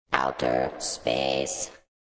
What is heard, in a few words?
Robot says Outer Space; Robot Speaks 5